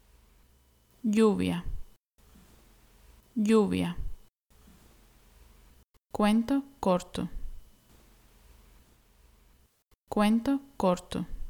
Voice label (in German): Hörprobe Regen Spanisch nachsprech